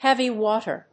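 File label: "heavy water", English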